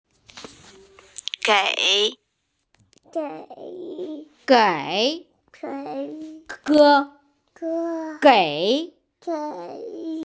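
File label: "gei3", Mandarin Chinese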